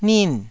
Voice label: "nin4", Taiwanese

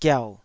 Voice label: "giau3", Taiwanese syllable

